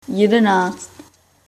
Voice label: eleven, czech

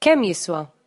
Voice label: how much maltese

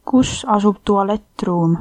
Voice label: toilet, estonian

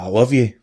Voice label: i love you scots

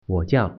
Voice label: name, mandarin

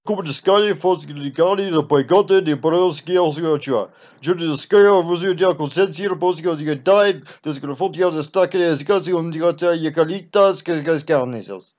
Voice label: Rusyn

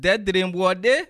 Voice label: toilet, bassa

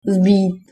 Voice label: "sbit", Czech

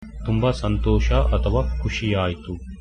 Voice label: cheers, Kannada

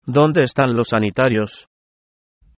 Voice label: "toilet", Spanish

